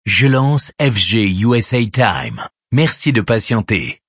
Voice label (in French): lancement radio